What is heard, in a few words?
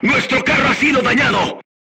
voice message player tank hit, crew tanks spanish